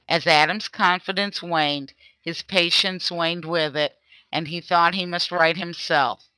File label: female; flowtron